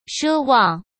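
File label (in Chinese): "奢望", Mandarin Chinese; chinese-flashcards; hsk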